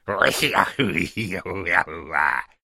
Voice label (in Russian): zvuk tarabarshiny zloradnoi